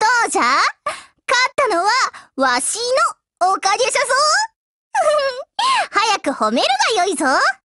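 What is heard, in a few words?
WIN, Japanese, Voice; GF Alarm; M1895Mod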